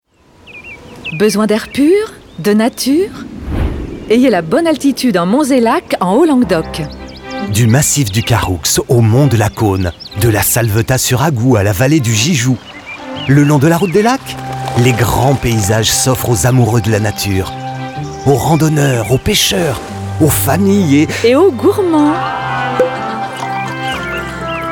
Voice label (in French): spot radio; salvetat; fb herault du 21 au 31 mars